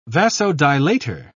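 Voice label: "vasodilator", British English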